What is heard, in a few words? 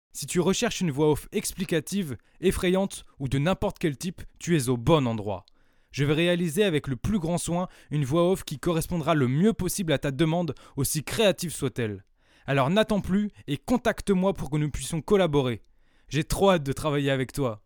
French, Male